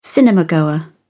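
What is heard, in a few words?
"cinemagoer", British English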